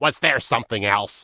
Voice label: Gnome Male Standard NPC Pissed